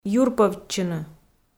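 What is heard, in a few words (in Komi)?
"юрпӧвтчыны" Komi, female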